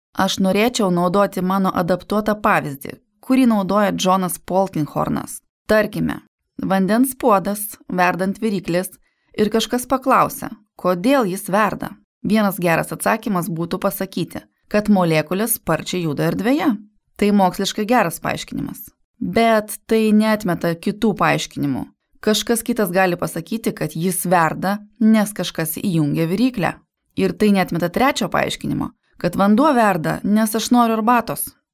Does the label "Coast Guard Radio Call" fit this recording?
No